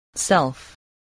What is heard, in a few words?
"self", english spelling